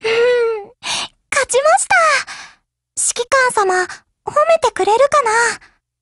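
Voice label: Japanese, Voice; GF Alarm; MP40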